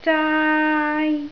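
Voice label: "zaai1", Cantonese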